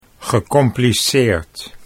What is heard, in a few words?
"gecompliceerd", Dutch